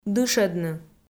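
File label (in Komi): "дышӧдны", Komi, female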